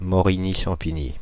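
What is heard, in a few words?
"Morigny-Champigny", French, Paris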